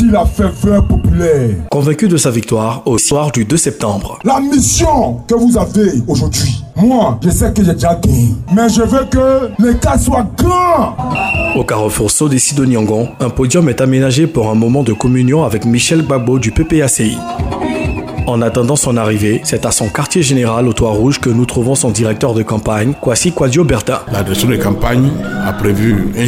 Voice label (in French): ambiance electorale a yopougon